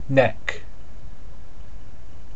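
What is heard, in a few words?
"neck" English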